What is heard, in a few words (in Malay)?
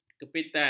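"kepitan", Malay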